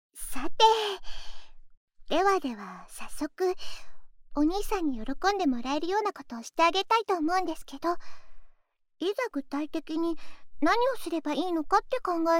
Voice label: 右耳の耳かき, ASMR; お礼に……ママになってあげます♪

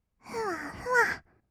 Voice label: ふわふわ, kawaii voice; ASMR; 色々なワンショット; 1200 kawaii voice!!

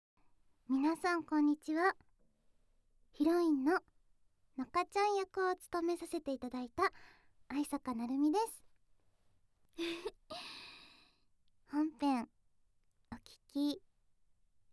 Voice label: フリートーク

